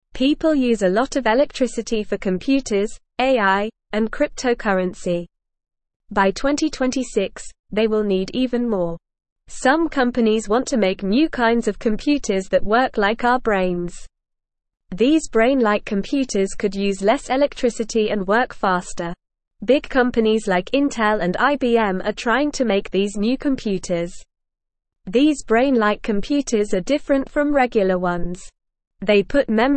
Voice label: English Beginner NORMAL Reading; Brain like Computers Faster Energy saving Future for AI